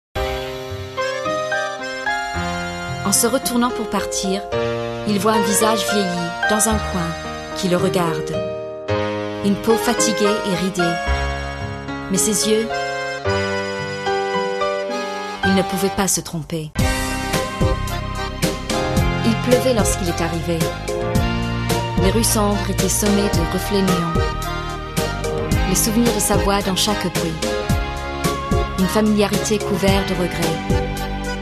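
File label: French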